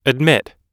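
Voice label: "admit", American English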